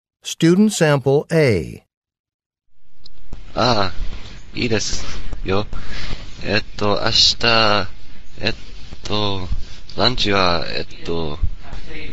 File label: japanese conversation